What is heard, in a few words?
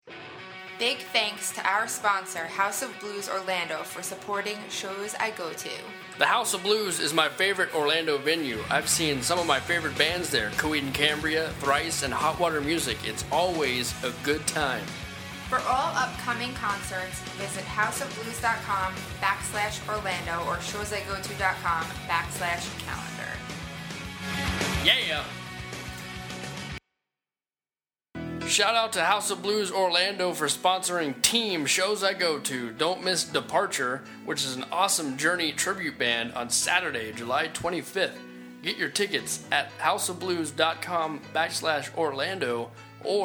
Commercial; HOB; Orlando